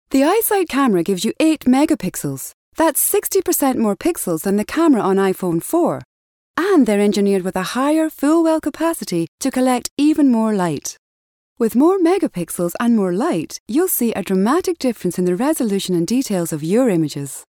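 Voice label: English Scottish, female, voice over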